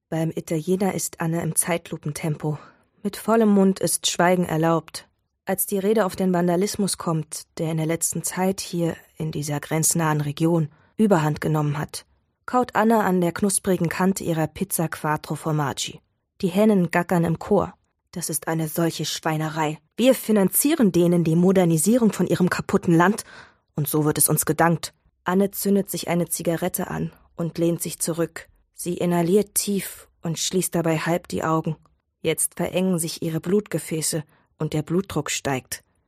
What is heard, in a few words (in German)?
Hörbuch; LOFT VOICES